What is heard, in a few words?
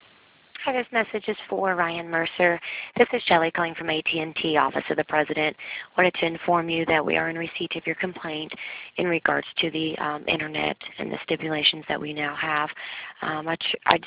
voicemail